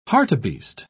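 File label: "hartebeest", British English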